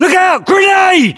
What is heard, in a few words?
UK inform incoming grenade, battlechatter